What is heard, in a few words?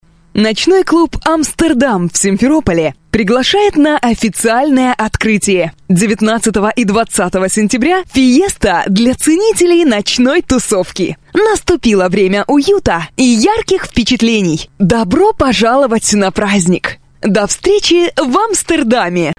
Russian, Female